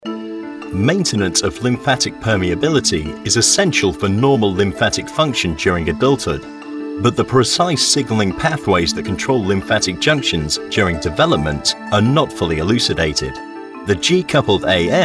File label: Medical voiceover